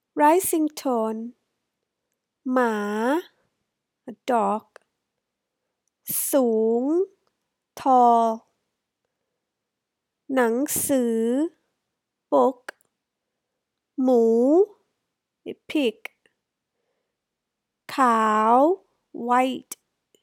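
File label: rising tone, Thai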